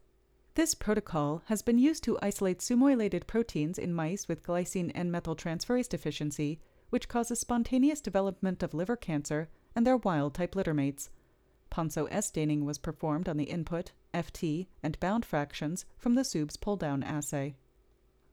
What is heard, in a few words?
Voiceover